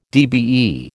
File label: "DBE", American